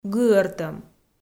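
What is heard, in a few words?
"гыӧртӧм", Komi, female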